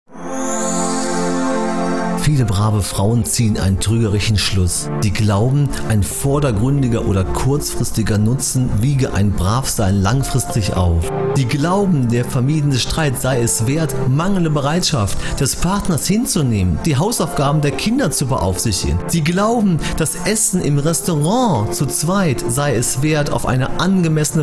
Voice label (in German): Erzählung